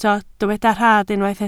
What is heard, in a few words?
"rhad", Welsh